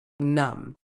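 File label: "numb", medical English, British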